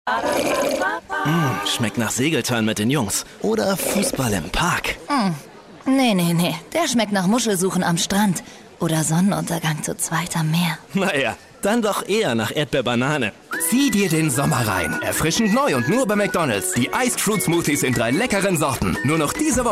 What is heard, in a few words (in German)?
Werbung; McDonalds